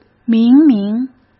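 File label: "明明", Mandarin Chinese; chinese-flashcards; hsk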